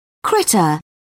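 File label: "critter", British English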